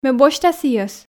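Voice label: Komi sentences, female; ме босьта сійӧс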